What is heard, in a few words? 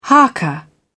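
"haka", British English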